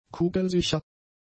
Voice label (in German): "kugelsicher", German; adjectives